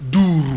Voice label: "duru", Yoruba; ORAL VOWELS; duru-ilu-suuru